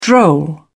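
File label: "droll", British English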